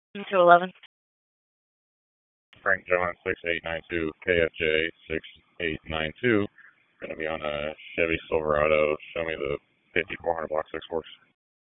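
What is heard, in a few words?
Police Dispatch; Raleigh Police Northeast District Disp; wake; p25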